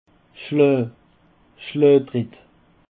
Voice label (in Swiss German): "schlaa", Alsace